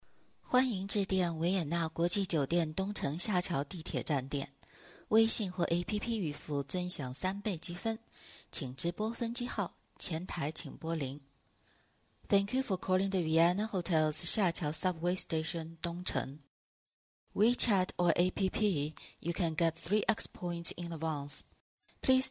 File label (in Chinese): 中英双语电话语音干音酒店总机语音服务